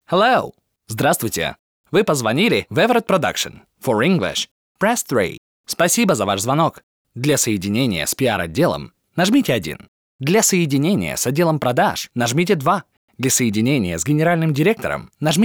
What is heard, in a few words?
IVR; Everett Production